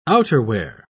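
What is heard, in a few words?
"outerwear", British English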